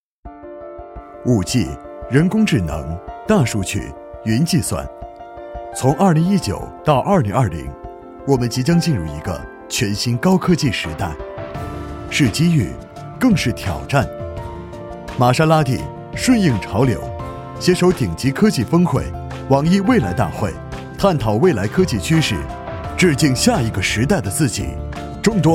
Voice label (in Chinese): 男 未来科技; 玛莎拉蒂